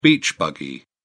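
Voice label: "beach buggy", English, British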